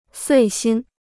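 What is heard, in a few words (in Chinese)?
"遂心" Chinese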